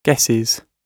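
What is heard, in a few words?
"guesses", British English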